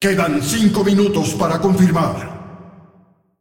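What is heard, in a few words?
announcer female tournaments lockin 10min, hu_hu; lol; clash vo; es mx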